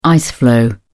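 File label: "ice floe", British English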